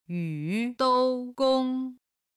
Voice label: "yu3dou1gong1", chinese